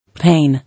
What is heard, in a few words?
"Pain", English